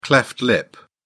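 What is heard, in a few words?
"cleft lip", English, uk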